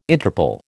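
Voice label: "Interple", American English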